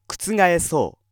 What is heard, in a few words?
male